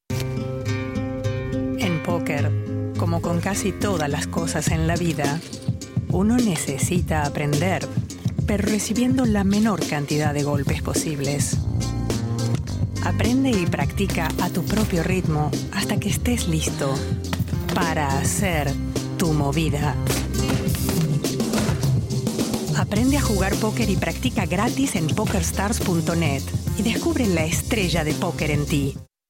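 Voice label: Latin American Spanish commercial; GoLocalise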